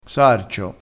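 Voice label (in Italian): "sarcio", vocabolario dialettale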